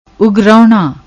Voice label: "Ugrahuna", Punjabi